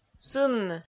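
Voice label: "сынны", Komi, female; audio dict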